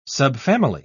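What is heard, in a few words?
"subfamily", British English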